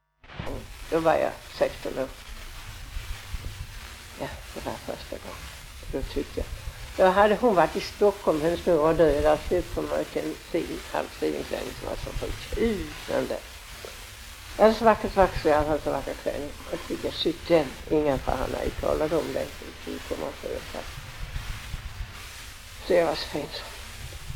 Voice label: Lunds stad SK, dialekter; Folkminnen

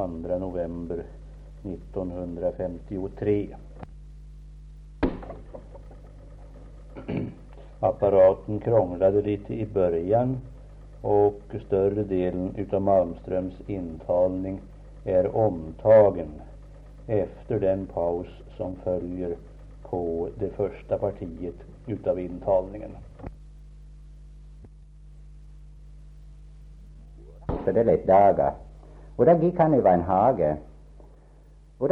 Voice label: Stånga, dialekter; Svenska sagor; Folkminnen

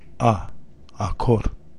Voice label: "Akhor", Romska

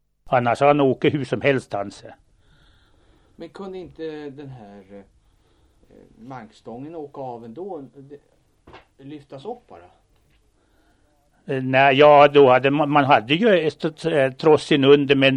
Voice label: Jumkil, dialekter; Svenska sagor; Folkminnen

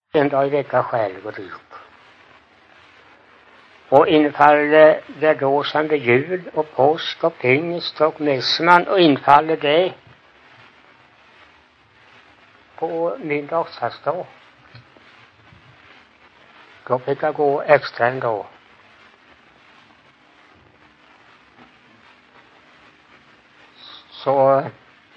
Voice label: Ingatorp SMÅL, dialekter; Folkminnen

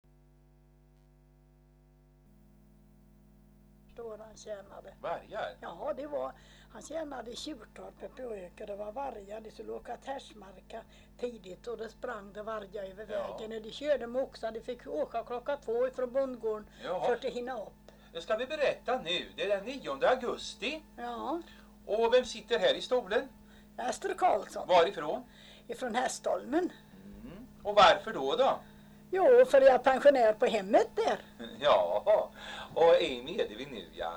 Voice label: dialekter; Folkminnen

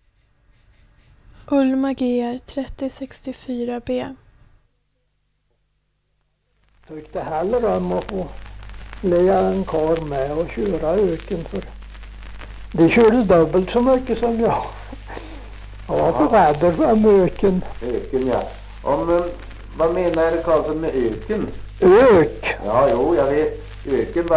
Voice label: Heda, dialekter; Folkminnen

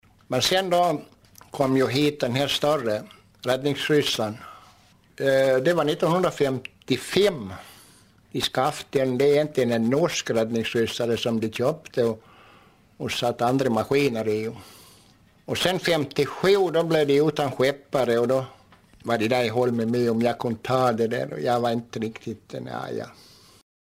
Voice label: Ostergarn, dialekter

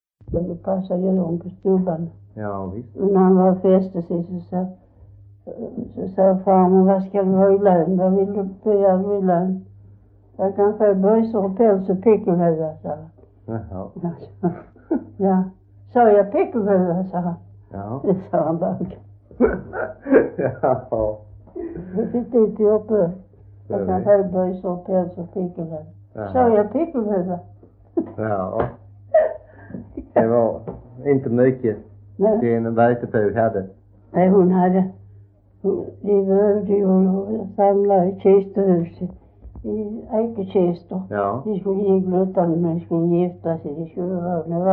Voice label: S Svedala, dialekter; Folkminnen

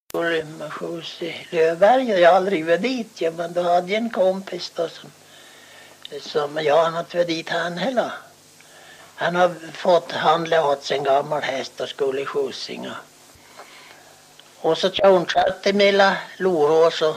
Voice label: Haggenas, dialekter

